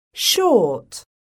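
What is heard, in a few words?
"SHORT", English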